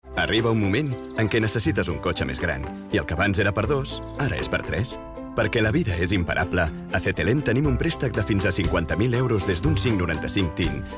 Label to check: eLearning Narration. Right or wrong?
Wrong